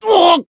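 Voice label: Voice damaged Japanese; shigure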